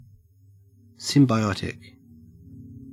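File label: "symbiotic", Australian English; pronunciation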